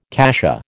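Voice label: "cassia", American English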